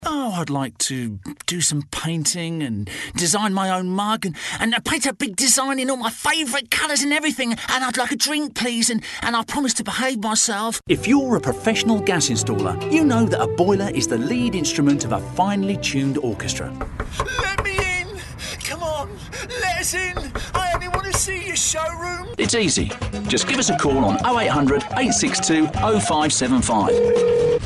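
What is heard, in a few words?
commercial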